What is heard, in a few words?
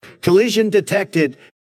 collision detected, voices en; jankboard